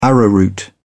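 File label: "arrowroot", British English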